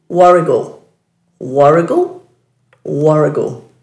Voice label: "warigal", Dhurga; dulagaal

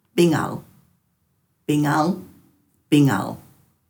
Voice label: "bingal", Dhurga

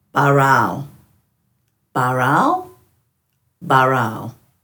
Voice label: "baraal", Dhurga

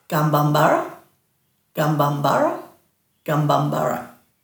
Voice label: "gambambara", Dhurga

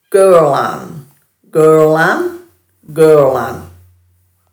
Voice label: "gurlanj", Dhurga

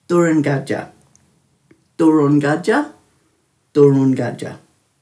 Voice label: "durun.gadja", Dhurga

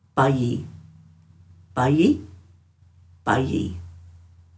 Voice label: "bayi-", Dhurga

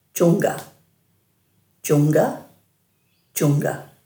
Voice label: "djungga", Dhurga; dulagaal

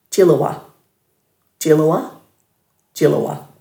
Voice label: "djilawa", Dhurga

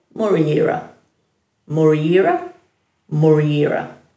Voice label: "muriyira", Dhurga

